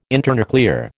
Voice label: "internuclear", American